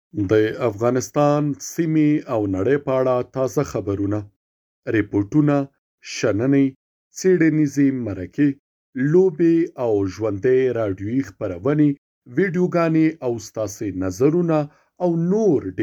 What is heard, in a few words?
MALE PASHTO